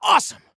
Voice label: Compliment Awesome, Soldier Male; Soldier Male 01

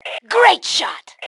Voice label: Compliment Great Shot, Female

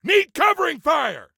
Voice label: Need Cover, Soldier Male; Soldier Male 03

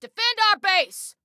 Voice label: Defend Base, Female

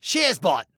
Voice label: Global Shazbot, Male

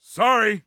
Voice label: Team Sorry, Soldier Male; Soldier Male 03

